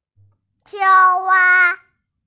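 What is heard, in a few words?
"qing wa" Chinese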